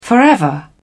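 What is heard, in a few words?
"forever", British English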